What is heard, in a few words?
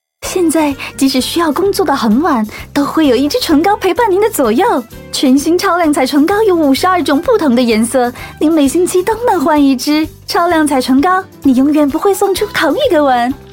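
Mandarin Megamix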